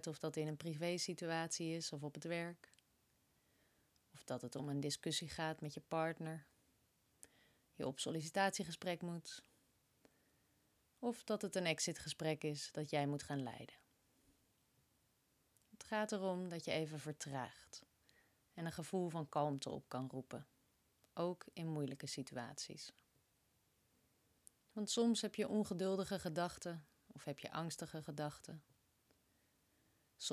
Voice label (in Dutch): intro communicatie meditatie mindfulness; serene warrior